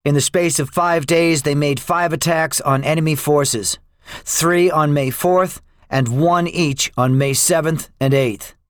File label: debriefing, english; War Thunder